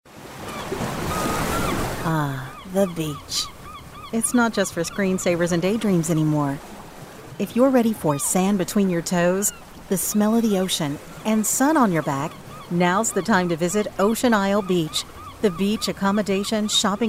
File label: Radio